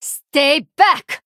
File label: Female Draenei; TRIGGER CONDEMN LEVEL 2